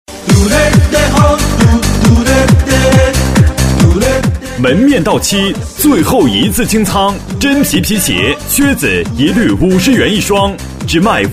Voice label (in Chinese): 真皮鞋子皮鞋 男 激情; 促销